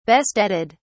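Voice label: "besteaded", British English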